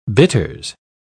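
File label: "bitters", British English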